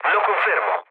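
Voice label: Italian player killed enemy mood low, speech; War Thunder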